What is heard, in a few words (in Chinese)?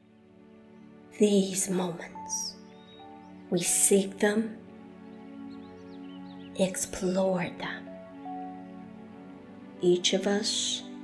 英文 广告 神秘 沉稳